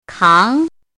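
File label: "káng", Chinese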